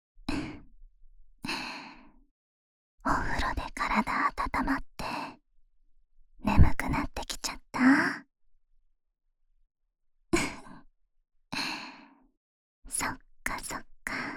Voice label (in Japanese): ママの甘々吐息+すやすや安眠誘導（甘々囁き+編集 吐息大きめ）, ASMR; 吐息耳かき